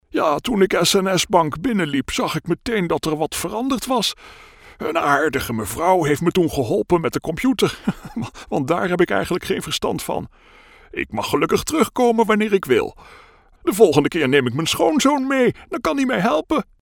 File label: character 90 year old man